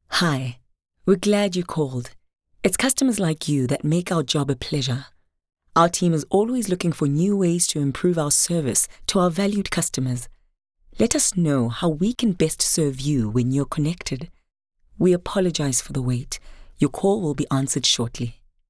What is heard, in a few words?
Generic IVR